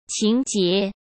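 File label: "情结", Mandarin Chinese; hsk; chinese-flashcards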